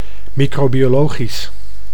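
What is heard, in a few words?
"microbiologisch", Dutch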